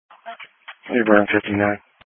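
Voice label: Police Patrol Dispatch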